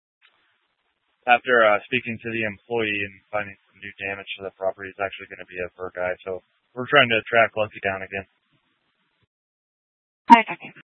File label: Police Dispatch, scanner; Police 1 Dispatch